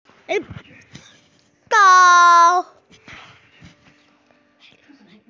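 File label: "gao1", Chinese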